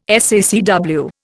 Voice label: "SACW", Indian